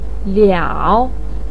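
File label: "liao", Mandarin pinyin, third tone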